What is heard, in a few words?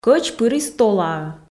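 Komi sentences, female; кӧч пырис толаӧ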